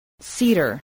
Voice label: "cedar", spelling

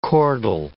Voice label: "chordal", English

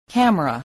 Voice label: "camera", spelling

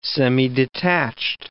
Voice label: "semidetached", English

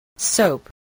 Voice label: "soap", English voice

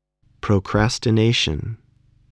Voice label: "procrastination", voice, spelling

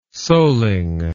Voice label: "soling", English

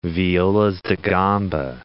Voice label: "violas da gamba", English

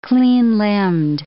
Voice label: "clean-limbed", English

